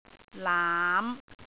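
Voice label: "laam5", Cantonese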